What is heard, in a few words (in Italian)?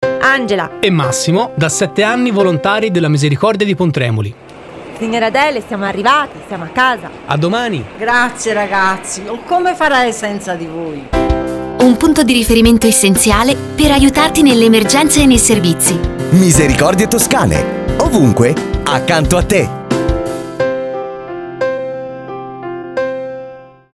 campagna spot radiofonico; pontremoli